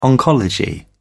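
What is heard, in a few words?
"oncology", English, British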